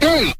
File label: "hey", voice